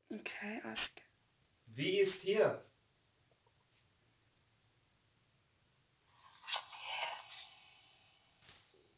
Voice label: German mein hertz